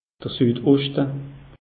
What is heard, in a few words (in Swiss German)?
"sudoschte", alsace; meteo